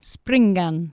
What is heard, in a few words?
"springan", Anglo Saxon